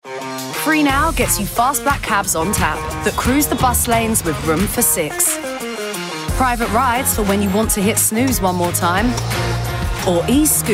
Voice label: commercials